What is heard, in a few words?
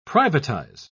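"privatise", British English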